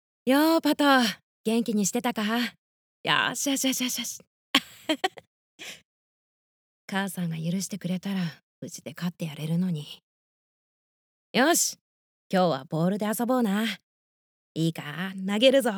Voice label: 外画 男の子

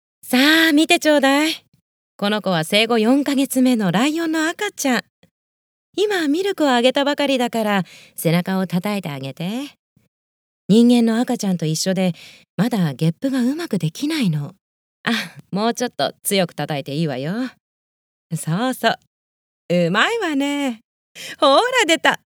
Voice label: 中年 ライオン

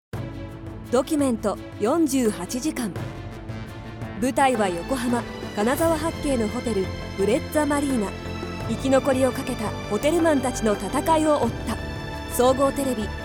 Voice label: ドキュメント

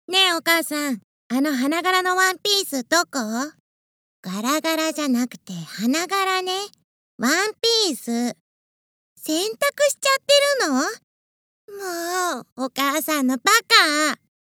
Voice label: 外画 女の子

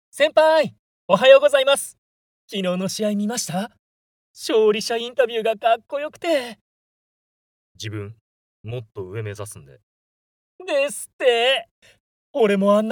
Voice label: アニメ 若め

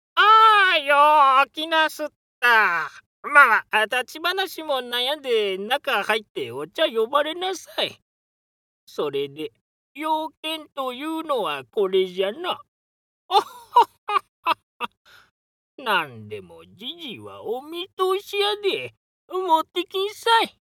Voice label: アニメ 老け

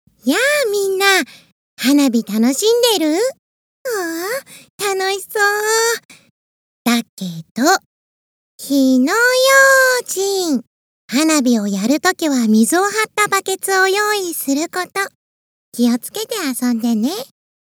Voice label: アニメ 女の子

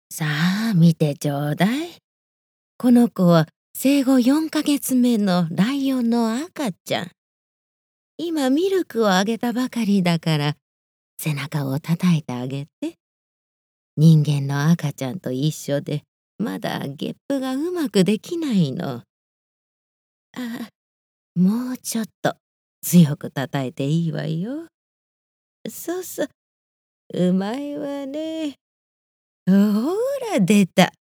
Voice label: ライオン 老け

